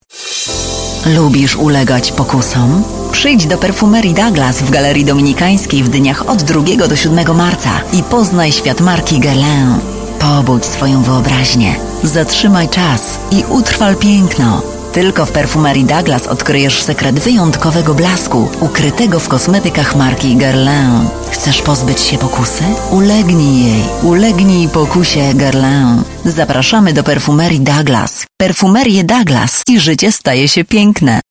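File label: voice female advert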